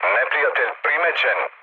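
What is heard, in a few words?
Serbian enemy detected mood med; speech; War Thunder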